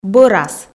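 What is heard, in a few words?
"бӧрас", Komi, female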